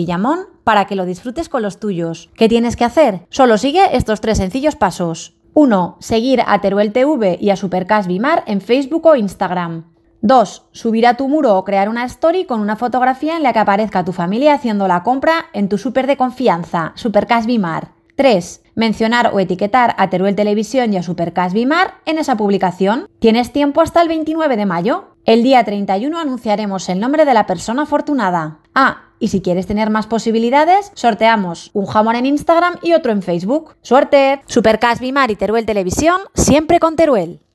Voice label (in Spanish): cuña sorteo con jingle; DON JATE S A; CUÑAS DE IMAGEN